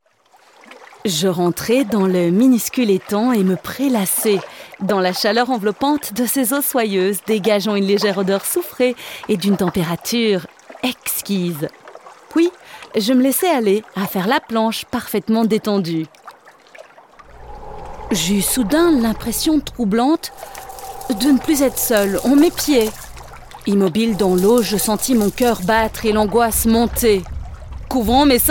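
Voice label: French, Audiobook; GoLocalise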